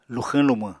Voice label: "luxwñ lumwñ", apatani

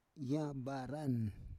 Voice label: "yabaran", Roon

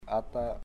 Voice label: your mother, chamacoco

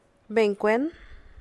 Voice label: as, San Lucas Quiaviní Zapotec; beiny cwen; como

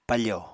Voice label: "pafò", apatani

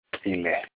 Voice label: "town", Olukumi

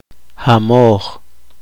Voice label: poqomchi; llenado

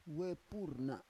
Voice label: "we pur na", Roon

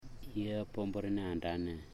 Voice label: big black pig, Ipili; Ambua

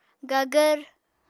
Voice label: "gagvr", Apatani

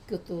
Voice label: "kutu", to cut, Wamesa

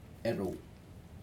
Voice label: "two", Aneityum

